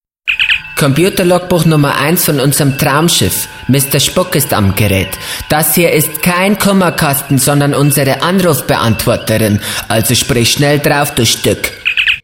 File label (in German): Anrufbeantworter Ansage; Bully Parade